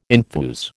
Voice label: "enthuse", American

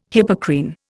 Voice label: "Hippocrene", Indian

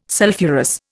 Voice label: "sulphurous", Indian

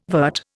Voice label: "vert", Indian

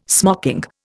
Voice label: "smocking", Indian

